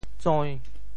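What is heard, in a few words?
"tsoi~5", Teochew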